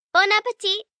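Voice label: Good Appetite French, Etiquette, Foreign, 1 girl, 9 yrs., French; Talking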